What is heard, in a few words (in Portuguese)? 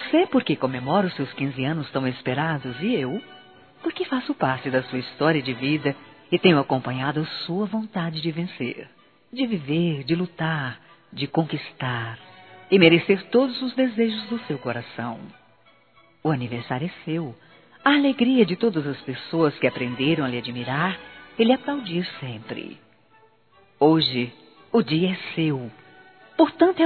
AMIGA F; Aniversários; Telemensagens